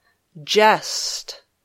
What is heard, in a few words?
"jest", English